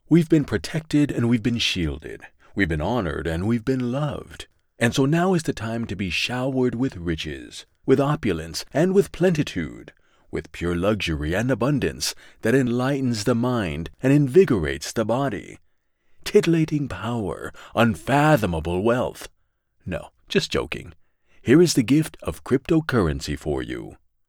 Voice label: female; 13+